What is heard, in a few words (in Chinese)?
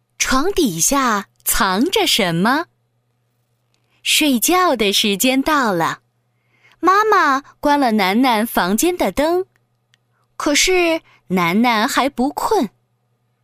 女 讲故事【绘本故事 男孩+女孩】